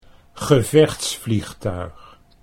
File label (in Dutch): "gevechtsvliegtuig", Dutch